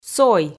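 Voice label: "сой", Komi, female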